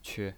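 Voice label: "que", Mandarin Chinese, neutral tone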